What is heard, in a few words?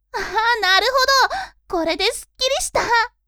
woman